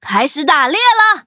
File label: battle, zh-hant; Cookie Run